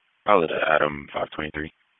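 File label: Police Southeast District Disp, radio; Raleigh; wake; p25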